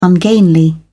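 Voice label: "ungainly", English, uk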